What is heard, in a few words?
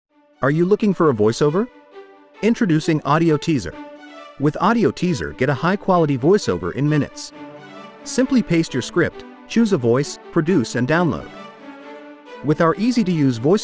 voiceover